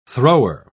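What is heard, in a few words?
"thrower", British English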